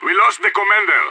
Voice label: commander down, radio; IDF